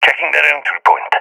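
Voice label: at enemy spawn, voice, agents, balkan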